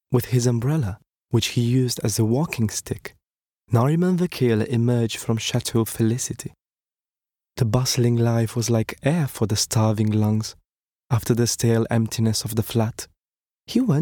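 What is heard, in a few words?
Romanian Male ACCENTS British English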